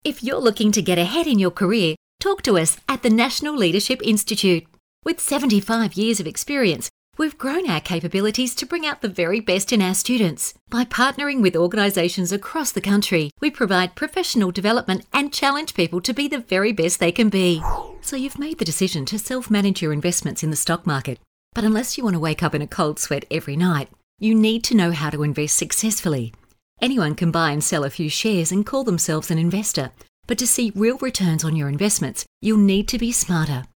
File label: Corporate Friendly